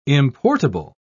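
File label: "importable", British English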